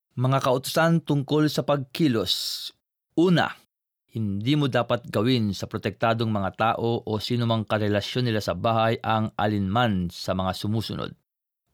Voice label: filipino; orders about behaviour